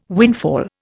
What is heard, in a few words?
"windfall", Indian